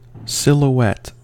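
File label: "silhouette", American English; pronunciations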